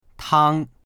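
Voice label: "tang1", Chinese; 汤; スープ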